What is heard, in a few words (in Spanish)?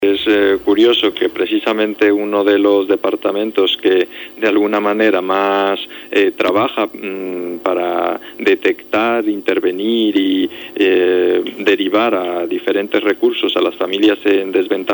noticias